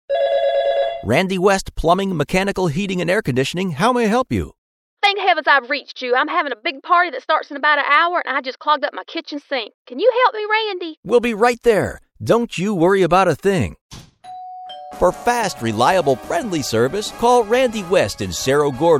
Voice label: commercial; plumber